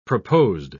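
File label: "proposed", British English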